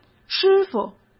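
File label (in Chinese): "师傅", Mandarin Chinese; hsk; chinese-flashcards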